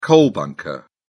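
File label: "coal bunker", English, British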